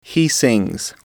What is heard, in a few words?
"he sings", english